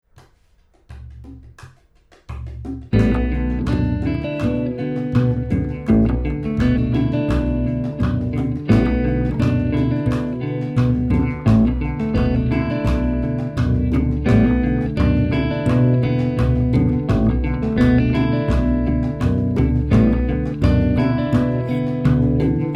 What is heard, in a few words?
train whispered